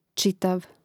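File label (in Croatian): "čitav" Croatian; prid.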